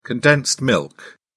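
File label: "condensed milk", British English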